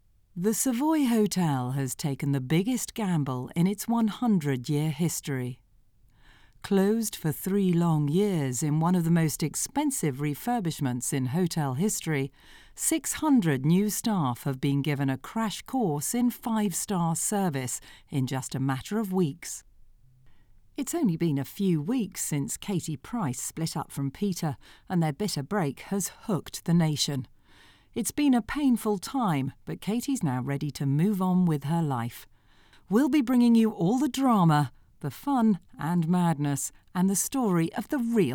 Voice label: Documentary

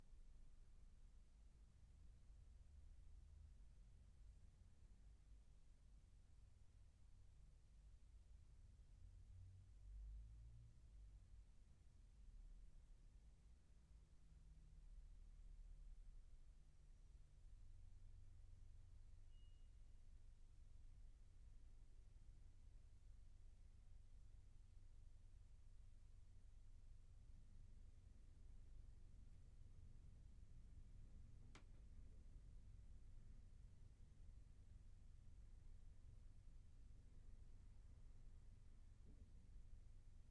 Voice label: Home Studio Test Raw

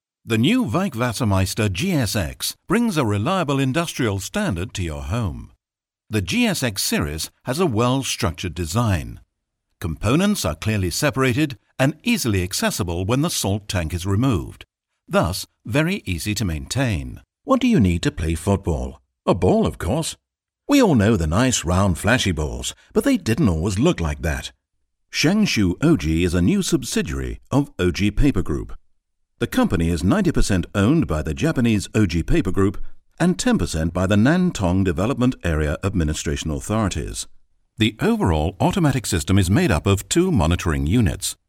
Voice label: narration

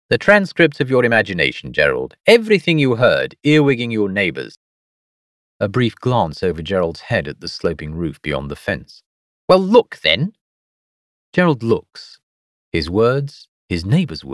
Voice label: Story extract narrator plus 2 characters

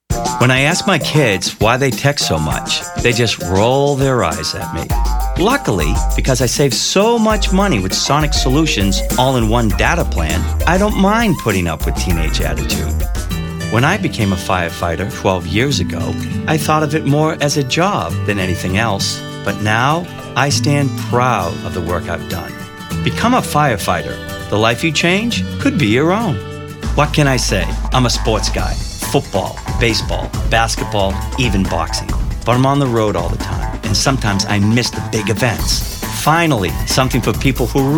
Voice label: commercials

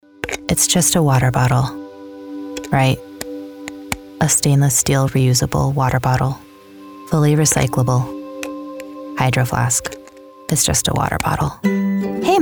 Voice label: Commercial

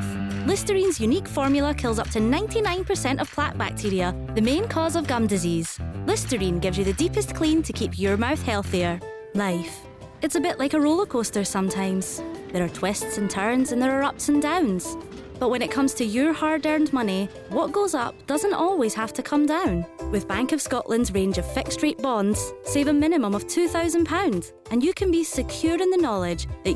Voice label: Commercial VO Reel Scottish

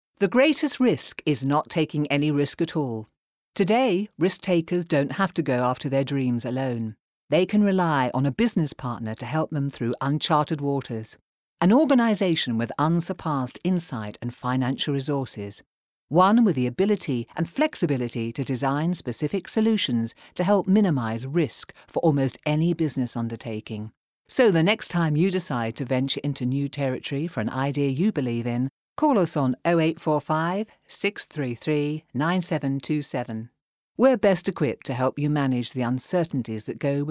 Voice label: Commercial DRY

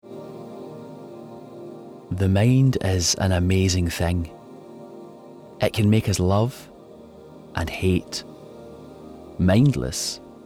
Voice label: Commercial Reel

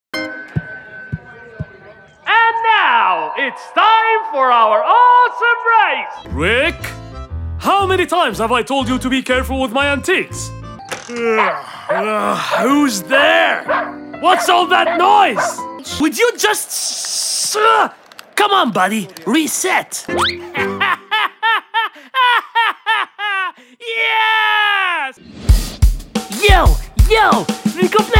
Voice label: english animation

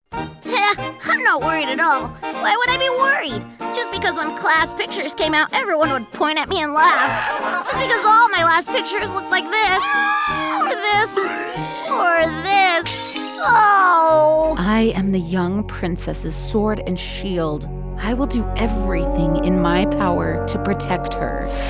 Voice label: Animation

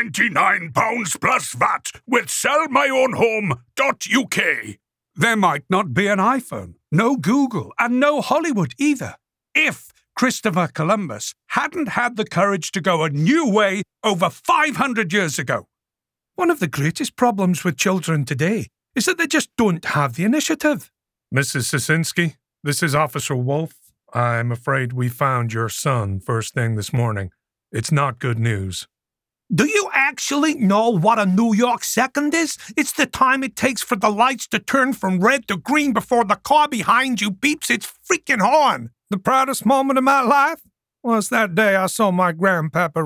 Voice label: Accents Characters

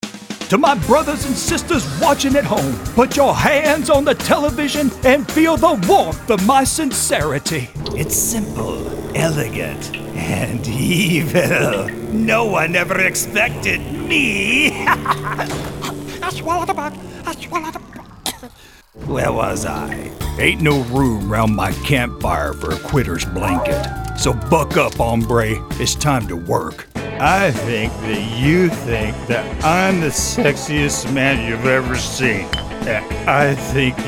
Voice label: Animation

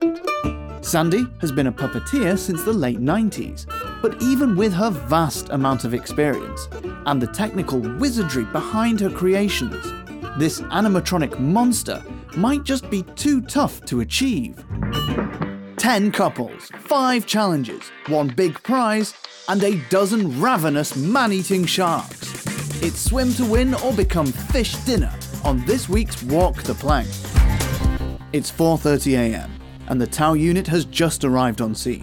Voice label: commercial reel

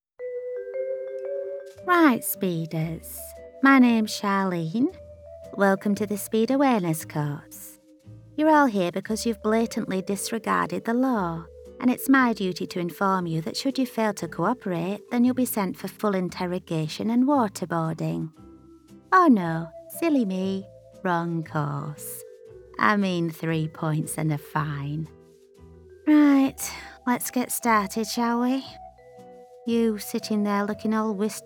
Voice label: Character voice reel

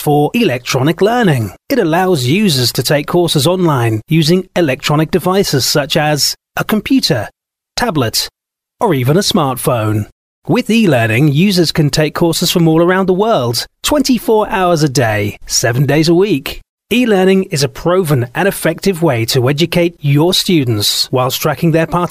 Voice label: corporate

